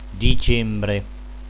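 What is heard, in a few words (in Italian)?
"dicembre", Italian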